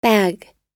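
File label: "bag", American English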